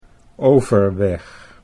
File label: "Overweg", Dutch